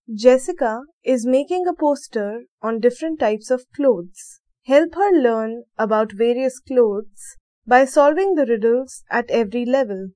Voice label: dialog; Elevate LMS; EVS; SolveThis